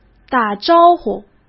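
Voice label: "打招呼", Mandarin Chinese; chinese flashcards; hsk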